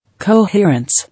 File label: "Coherence", English